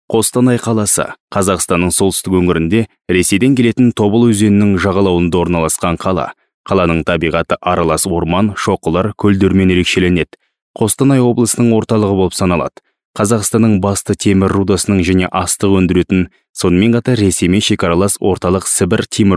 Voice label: kazakh male